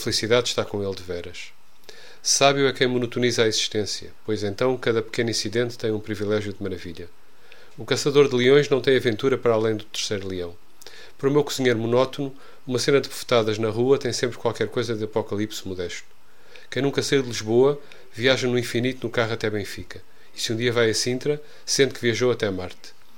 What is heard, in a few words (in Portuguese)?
monotonia voz; F Pessoa; Desassossego